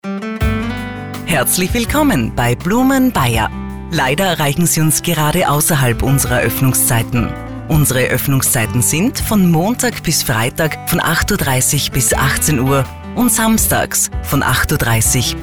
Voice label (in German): Nachtansage Winter; Blumen Bair; telefonansagen sonstige